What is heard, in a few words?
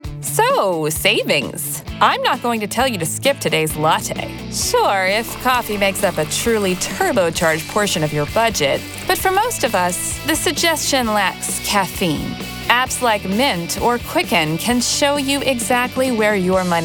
Explainer